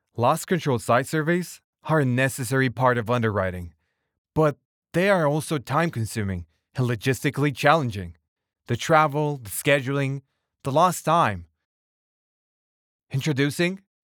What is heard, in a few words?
Insurance Explainer Video, male voices